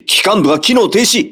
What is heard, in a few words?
voice message engine destroyed, crew ships japanese